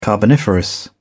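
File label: "carboniferous", British English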